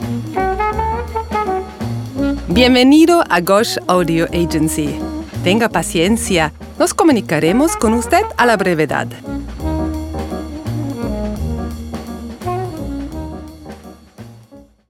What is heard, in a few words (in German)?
Telefonansage ESP